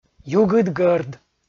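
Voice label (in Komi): "югыдгӧрд", Komi, male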